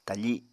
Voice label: "tafi", apatani

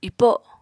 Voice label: "ipo", Apatani

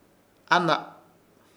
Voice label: "AHNA", Ishir Chamacoco; reir

